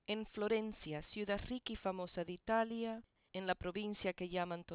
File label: female 8kHz; Speech; from 16kHz